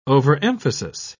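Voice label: "overemphasis", British English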